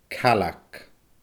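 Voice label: "caileag", Scottish Gaelic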